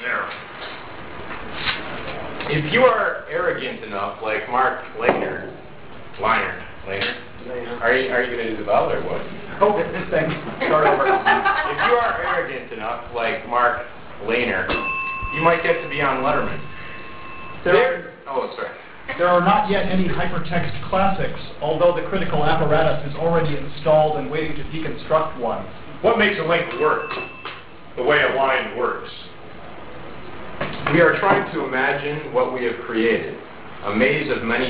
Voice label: poetry